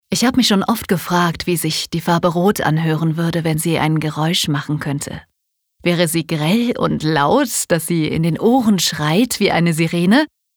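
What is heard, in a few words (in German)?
Schauspiel Freundlich; Farbe Rot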